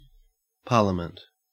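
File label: "parliament", Australian English; pronunciations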